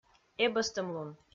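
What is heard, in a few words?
"эбӧстӧмлун", Komi, female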